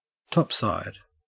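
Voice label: "topside", Australian English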